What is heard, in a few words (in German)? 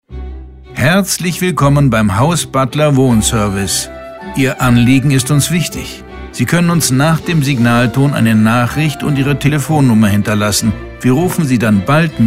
AB, Telefonansagen; Borchert Hausverwaltung; Telefonansage Verwaltung – Hausverwaltung – Hausmeister